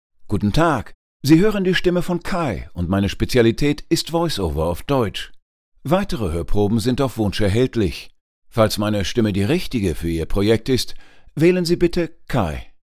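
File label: German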